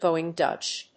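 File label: "Going Dutch", English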